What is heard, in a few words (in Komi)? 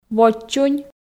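"водз чунь", Komi, female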